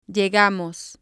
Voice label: "llegamos", spanish

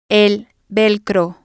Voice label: "velcro", Spanish

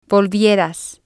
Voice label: "volvieras", Spanish

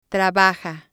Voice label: "trabaja", Spanish

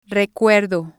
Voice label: "recuerdo", Spanish

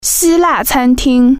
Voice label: "greek restaurant", Chinese

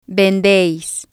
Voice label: "vendeis", Spanish

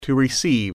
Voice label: "receive", English

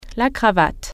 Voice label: "tie", French

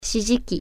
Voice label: "turn signal", Japanese

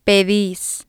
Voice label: "pedis", spanish

